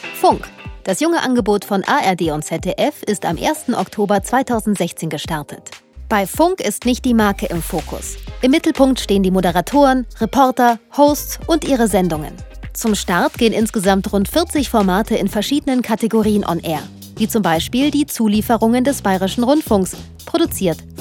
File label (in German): Fremdtake Neutral